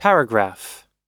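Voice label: "paragraph", American English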